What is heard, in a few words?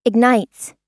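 "ignites", American English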